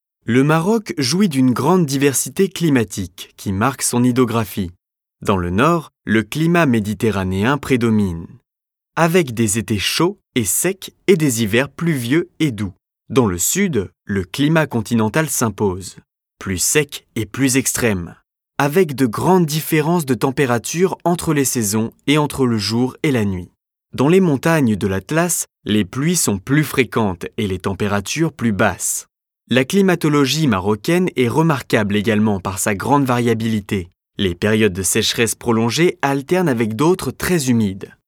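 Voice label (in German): French, Fremdtake Neutral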